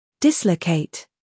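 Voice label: "scandal", American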